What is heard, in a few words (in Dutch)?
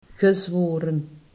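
"gezworen", Dutch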